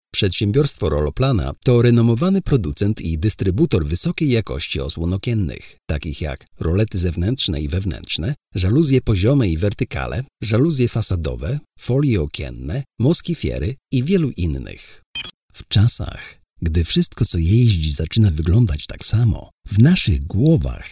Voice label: Polish